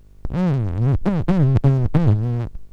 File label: Naxi tones